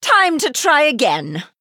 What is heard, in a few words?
Female High Elf Emote Combat Loss